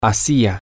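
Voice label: towards, spanish, male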